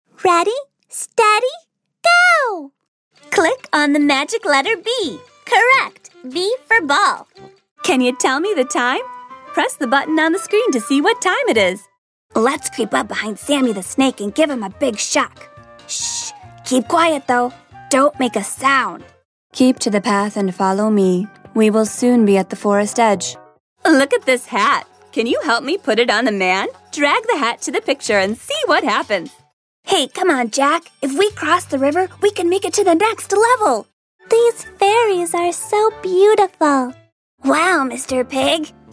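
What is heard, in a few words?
American, Toys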